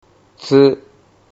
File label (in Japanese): "tsu", Japanese